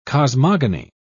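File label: "cosmogony", British English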